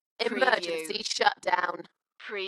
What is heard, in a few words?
UK female Emergency shutdown Dalekness; Tech / Sci-fi; beanstalk audio